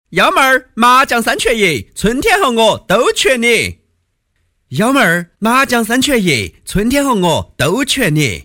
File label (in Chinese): 男 方言 成都支付宝单车扫描音